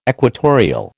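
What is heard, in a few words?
"equatorial", American English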